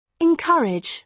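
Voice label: "encourage", British English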